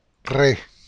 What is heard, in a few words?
rre, Spanish